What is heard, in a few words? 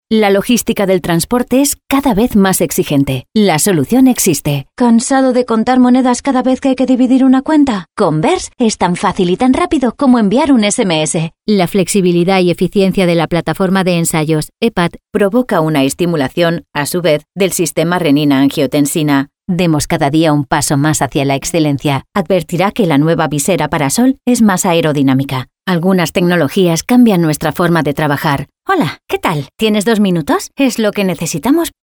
spanish promos